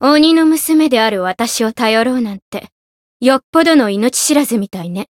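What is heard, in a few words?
Voice story, Japanese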